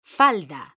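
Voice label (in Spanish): "falda", Spanish; mekanta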